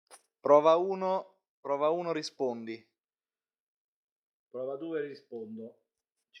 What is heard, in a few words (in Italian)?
voce in chiaro